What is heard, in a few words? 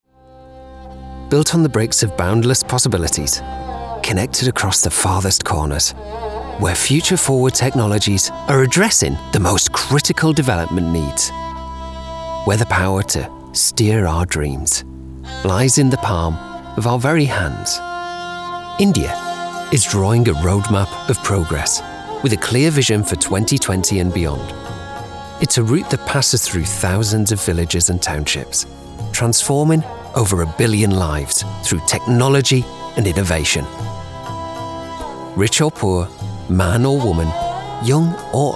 documentary